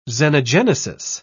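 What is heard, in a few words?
"xenogenesis", English, British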